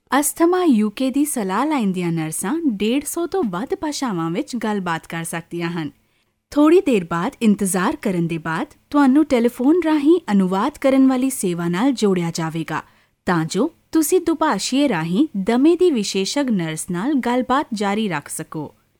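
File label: Punjabi female medical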